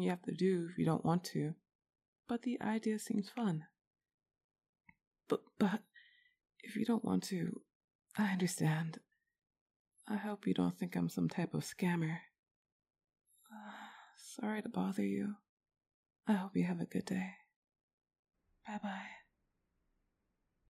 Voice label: F4M Voice Mail